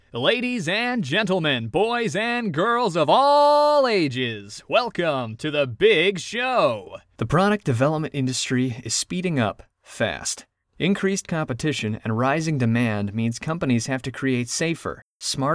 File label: commercial